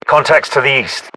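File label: threat infantry east, British; battlechatter; localized english; uk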